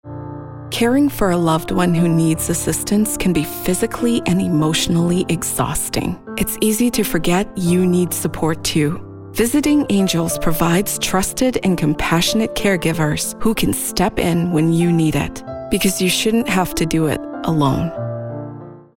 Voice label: Commercial; Visiting Angels